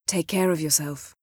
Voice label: female